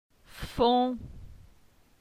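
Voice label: "Fon", French; phonetique; la syllabe on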